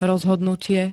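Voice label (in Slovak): "rozhodnutie", Slovak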